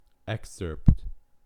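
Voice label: "excerpt", American English; pronunciations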